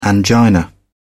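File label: "angina", British English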